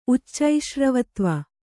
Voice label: "ಉಚ್ಚೈಶ್ರವತ್ವ", Kannada

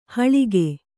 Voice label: "ಹಳಗೆ", Kannada

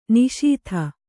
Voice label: "ನಿಶೀಥ", Kannada

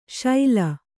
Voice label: "ಶೈಲ", Kannada

